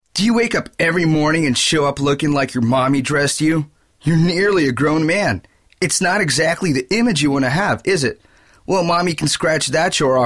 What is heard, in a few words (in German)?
Engl Spot, amerikanischer englisch native speaker; voice samples